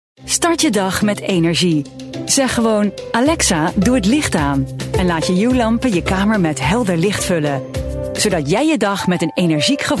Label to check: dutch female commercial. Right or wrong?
Right